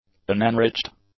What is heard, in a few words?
"unenriched", english; adjectives